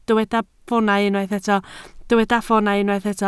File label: "ffoonau", Welsh